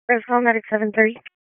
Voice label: WC EMS DISP1, P25 recordings; wake